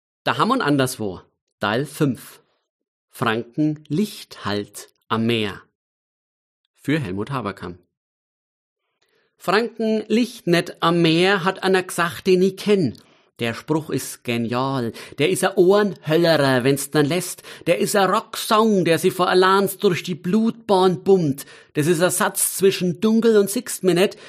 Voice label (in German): Mundart